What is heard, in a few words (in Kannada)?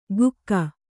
"ಗುಕ್ಕ", Kannada